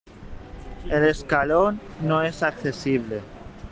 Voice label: Voice message